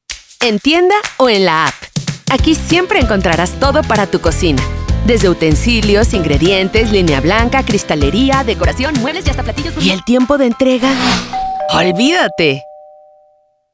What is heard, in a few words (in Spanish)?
Confiable energetica optimista